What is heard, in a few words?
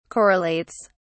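"correlates", British English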